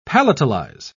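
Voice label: "palatalize", British English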